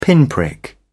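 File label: "pinprick", British English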